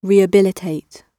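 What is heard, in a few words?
"rehabilitate", British English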